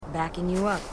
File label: marines female